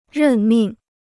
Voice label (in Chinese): "任命", Chinese